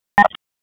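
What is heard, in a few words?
Raleigh Police North District Tactical, p25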